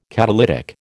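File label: "catalytic", American English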